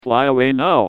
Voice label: taunt flip exert; pyro

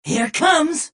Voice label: spy incoming; Adachi

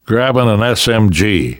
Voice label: rage; Bill

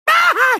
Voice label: pain; tf; crash

